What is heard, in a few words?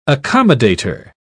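"accommodator", British English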